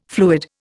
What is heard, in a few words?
"fluid", Indian